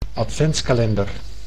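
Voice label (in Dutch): "adventskalender", Dutch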